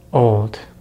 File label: "old", English; Consonants in American English; easypronunciation